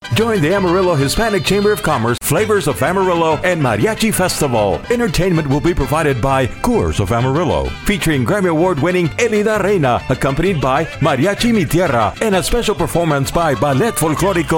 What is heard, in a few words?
English with Spanish